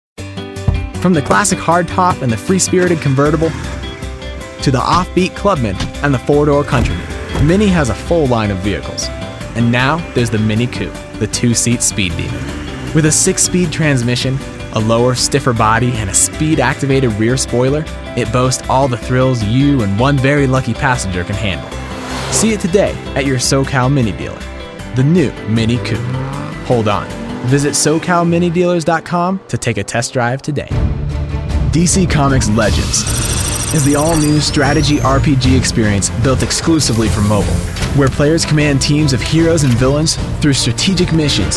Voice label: Commercial